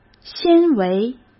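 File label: "纤维", Mandarin Chinese; chinese flashcards; hsk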